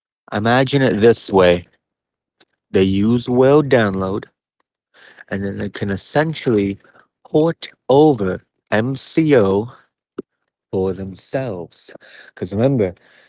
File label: voice message